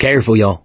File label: warn careful, survivor voice mechanic; source engine; left 4 dead 2